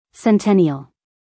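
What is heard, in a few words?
"centennial", American English, female; 1000 hours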